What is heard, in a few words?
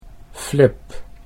"Flip", Dutch